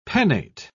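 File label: "pennate", English, British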